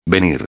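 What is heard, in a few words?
"venir", Spanish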